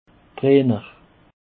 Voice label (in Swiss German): "trainer", alsace